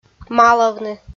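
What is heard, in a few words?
"малавны" Komi, female; kpv audio dict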